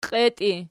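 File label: "qietii" nominative, Archi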